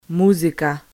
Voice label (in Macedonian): "muzika", Macedonian